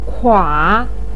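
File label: "kua" third tone, chinese, voice pinyin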